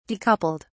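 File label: "decoupled", British English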